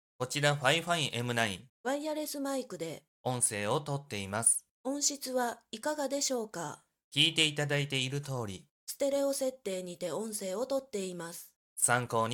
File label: fifine m9 microphone